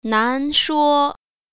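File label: "nan2shuo1", Mandarin Chinese Tone Pair Drills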